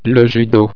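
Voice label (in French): "judo", French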